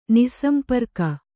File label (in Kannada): "ನಿಃಸಂಪರ್ಕ", Kannada